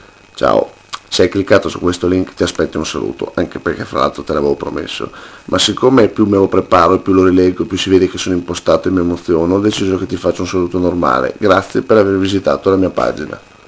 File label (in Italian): saluto vocale